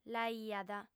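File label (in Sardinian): "l'aiat", Sardinian, female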